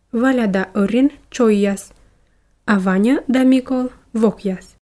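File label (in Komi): валя да ӧрин чойяс а ваньӧ да микол вокъяс, Komi, female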